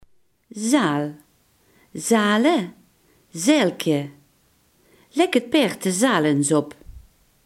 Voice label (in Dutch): "zadel", limburgse